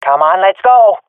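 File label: request move, swat female; vo; Counter Strike Model Pack; CS:GO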